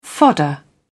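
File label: "fodder", British English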